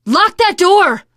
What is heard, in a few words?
close the door, teen girl, survivor voice